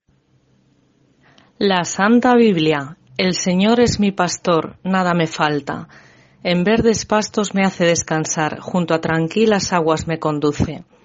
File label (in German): Spanisch Ps 23